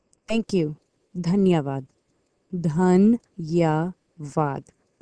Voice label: Hindi Thanks